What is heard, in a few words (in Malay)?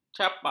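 "capak", Malay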